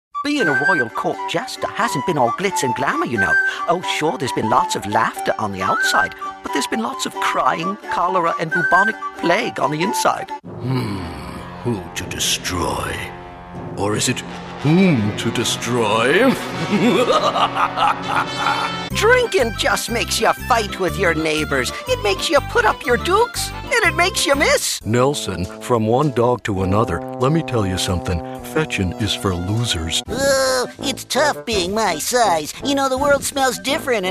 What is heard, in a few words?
Animation